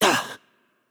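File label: Brazilian Portuguese hurt light voice; bloom minigame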